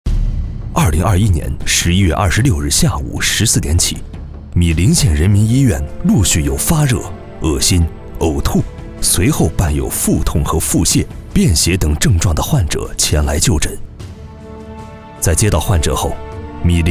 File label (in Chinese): 男 新闻 紧张新闻汇报